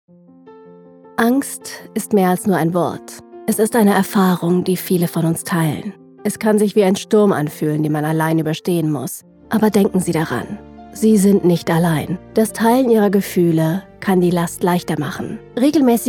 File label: Narration German e-learning, voiceover